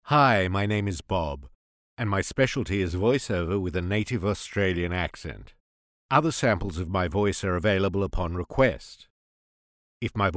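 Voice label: English Australian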